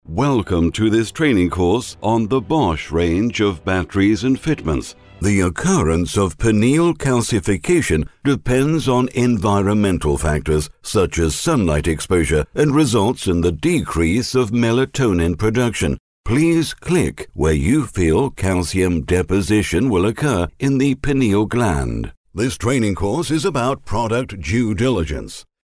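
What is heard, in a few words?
British English, male, elearning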